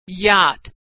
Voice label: "yacht", pronunciation

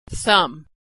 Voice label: "thumb", pronunciation

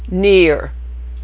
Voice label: "near", pronunciation

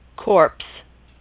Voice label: "corpse", pronunciation

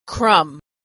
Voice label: "crumb", pronunciation

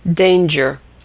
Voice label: "danger", pronunciation

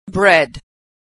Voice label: verb "bred", pronunciation

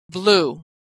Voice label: verb "blew", pronunciation

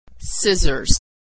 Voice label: "scissors", pronunciation

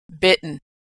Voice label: verb "bitten", pronunciation

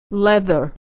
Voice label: "leather", pronunciation

